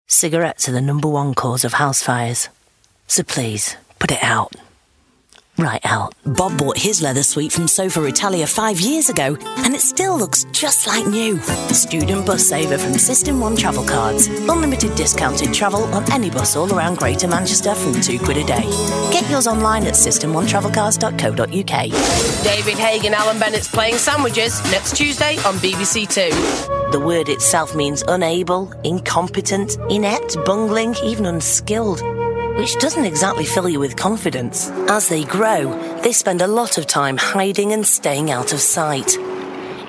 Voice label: Northern Accent

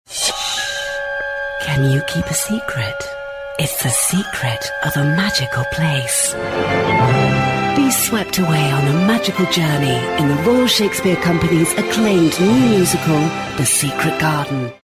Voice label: Commercial; Secret garden; Vocal Point

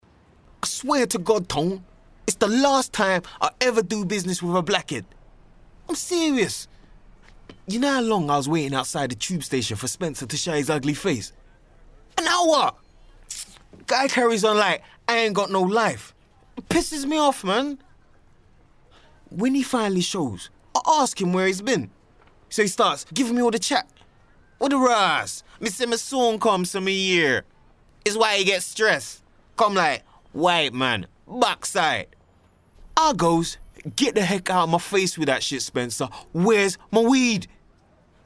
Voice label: Drama; Lift Off

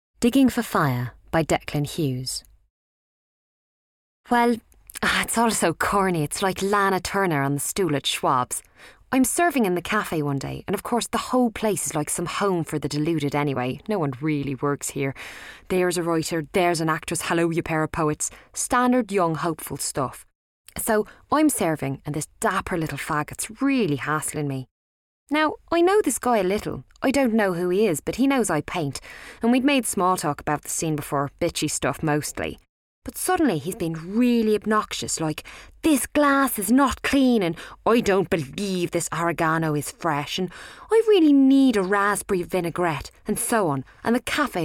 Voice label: narration Irish accent

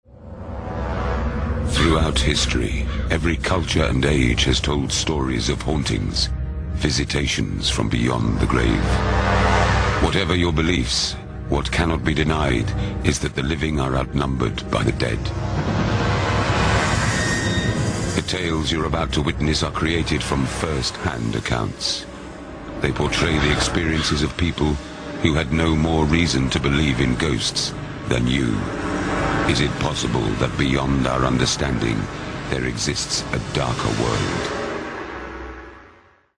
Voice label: Documentary; Haunted Britain

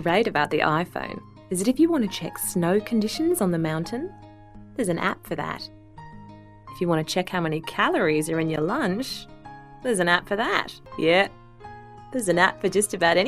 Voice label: Commercials Australian Accent

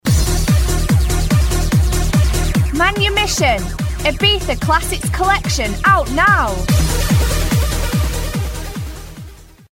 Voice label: Commercial; Manumission; Vocal Point

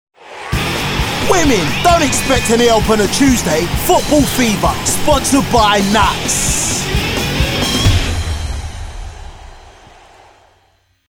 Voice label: Commercial; Nuts; Vocal Point

